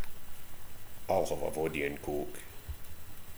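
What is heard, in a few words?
"voddy", slang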